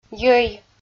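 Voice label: "йӧй" Komi, female; audio dict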